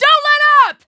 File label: american Positive; azurlane hoi4; azurlane sr reforged